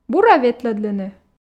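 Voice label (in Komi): бура ветлӧдлыны, Komi, female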